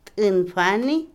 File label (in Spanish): becerro, ternero, Otomí, mujer; Animales; INALI